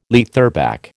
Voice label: "leatherback", American

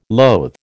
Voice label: "loathe", American

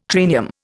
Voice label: "triennium", Indian

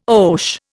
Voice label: "oche", Indian

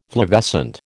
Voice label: "flavescent", American

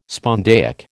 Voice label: "spondaic", American

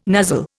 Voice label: "nuzzle", Indian

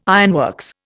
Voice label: "ironworks", Indian

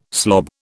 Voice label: "slob", British

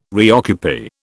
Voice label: "reoccupy", British

